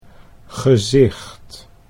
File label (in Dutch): "gezicht", Dutch